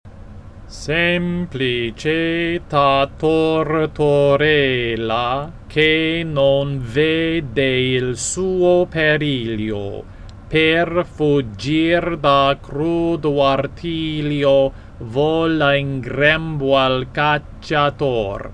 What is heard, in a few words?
pronunciation slow speed, Italian; Salti di Terza